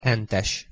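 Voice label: "hentes", Hungarian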